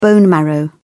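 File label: "bone marrow", British English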